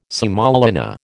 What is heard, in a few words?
"semolina", American